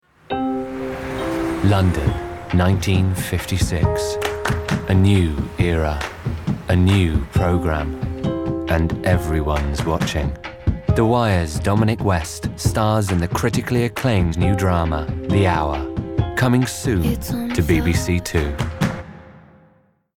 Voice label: english british tv shows

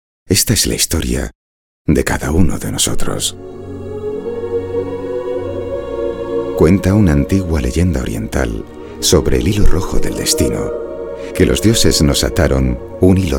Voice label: spanish podcasts

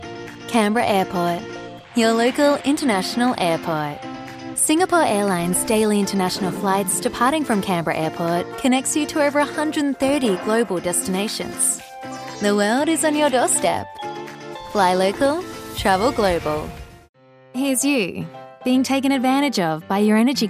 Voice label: english australian commercial

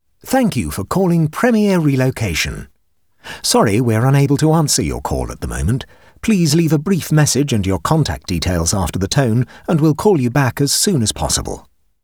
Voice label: english british ivr

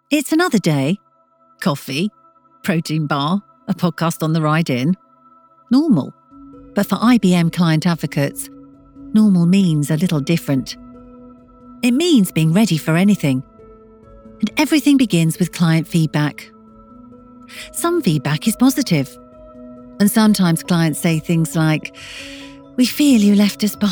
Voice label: english british corporate

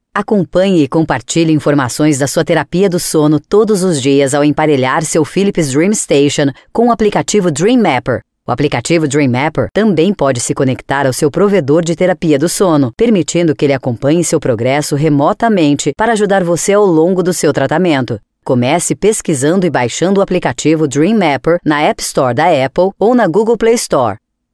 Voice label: portuguese brazilian e-learning

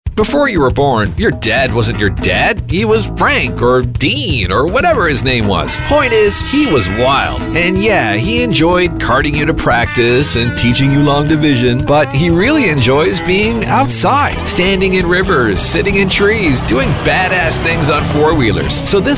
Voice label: english canadian commercial

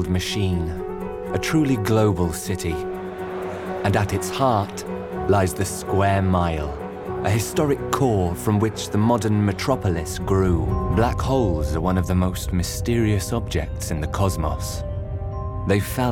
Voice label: english british narration

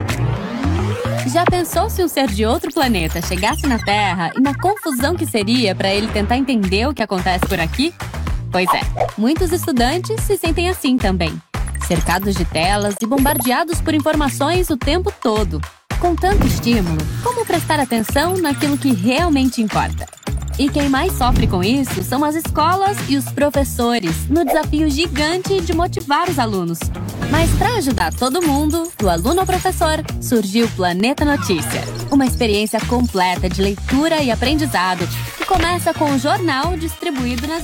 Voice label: portuguese brazilian narration

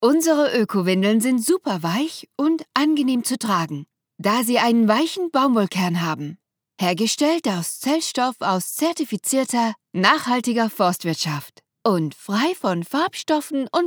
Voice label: german promos

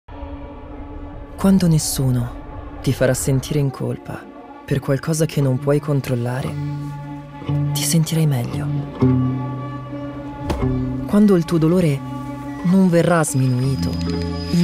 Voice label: italian medical narration, italian voice actors

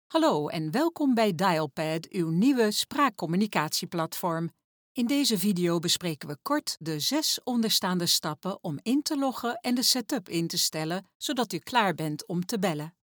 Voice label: dutch narration

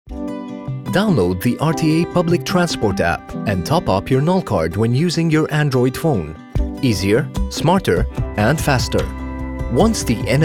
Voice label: english corporate videos

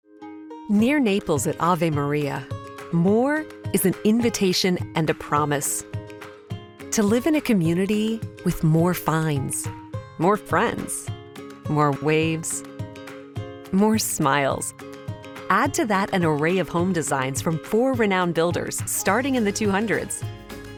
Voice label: english american real estate

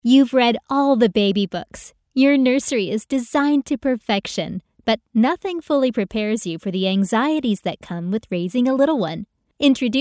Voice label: english american corporate

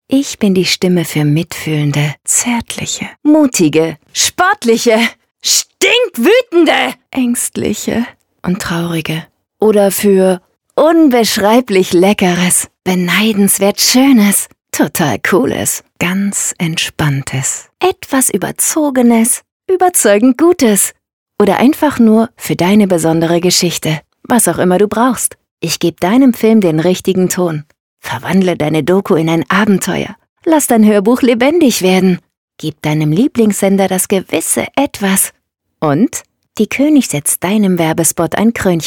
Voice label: german promos

